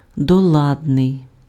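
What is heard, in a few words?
"доладний", Ukrainian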